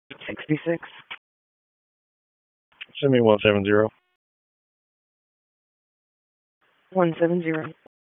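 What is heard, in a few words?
Police Northeast District Dispatch, Raleigh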